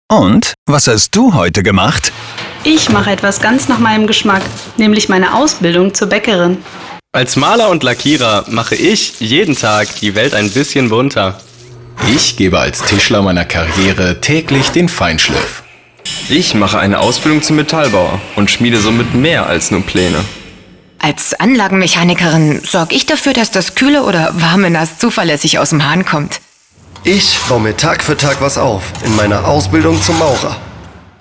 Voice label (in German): radiospot zum tag des handwerks